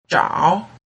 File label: "zhao", Mandarin